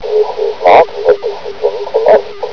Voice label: mumble

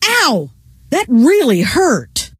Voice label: that hurt, scientist female

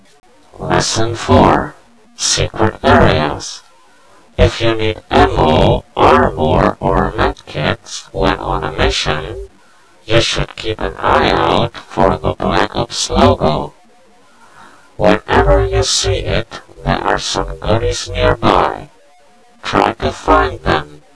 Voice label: holo less, tutorial; blackops

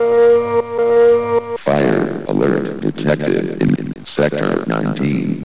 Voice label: announcement; sven; sector19

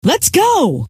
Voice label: "let's go", scientist female

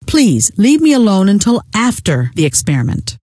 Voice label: leave me alone, scientist female

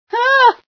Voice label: female sci fear

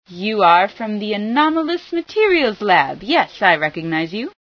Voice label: hello from lab, female

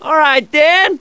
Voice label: cheer; l4d2 ellis

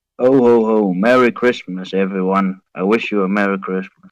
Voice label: merry christmas, bear; sven; santa bear

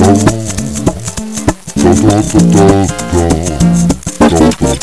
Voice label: weird talk

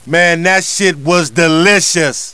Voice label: Cheer; Lamar Davis

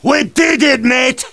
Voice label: cheer; tf2demoman

